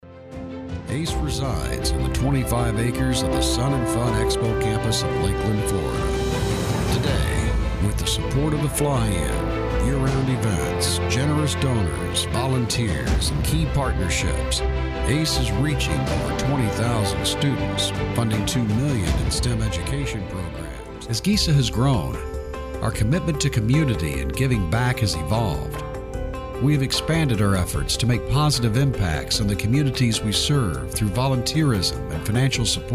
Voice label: Corporate Branding